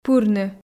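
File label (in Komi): "... пырны", Komi, female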